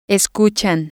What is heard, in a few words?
"escuchan", Spanish